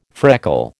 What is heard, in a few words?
"freckle", American